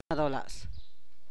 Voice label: "tranquilo", tlacochahuaya